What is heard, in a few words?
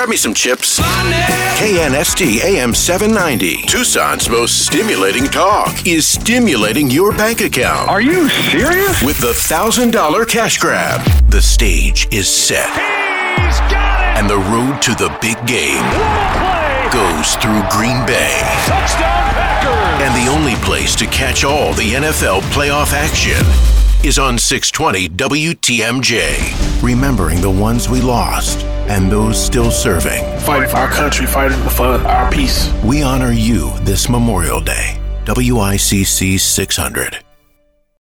radio